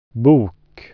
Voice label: "book", swedish

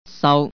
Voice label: to receive, cantonese

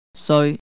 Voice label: bad, cantonese

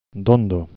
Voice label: soft, galician

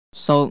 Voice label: beard, cantonese

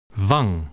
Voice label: cheek, dutch

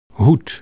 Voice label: "hat", dutch

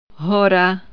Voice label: "mountain", czech

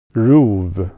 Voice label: "prey", swedish

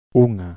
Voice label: a one, galician

